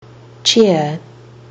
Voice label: "cheer", kiwi english